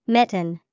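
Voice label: mitten, English vocabulary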